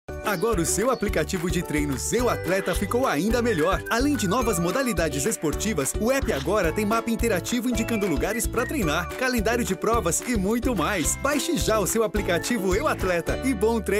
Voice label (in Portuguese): Portuguese Brazil